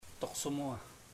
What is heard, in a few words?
I will give you, chamacoco; Puerto Diana